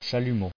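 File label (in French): "chalumeau" French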